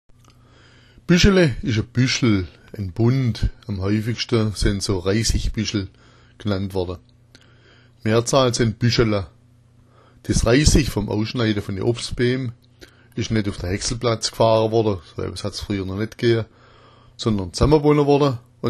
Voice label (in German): "bueschele", schwaebisch